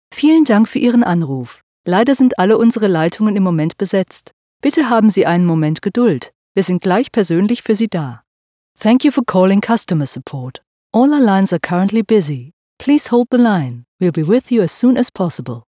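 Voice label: german interactive voice response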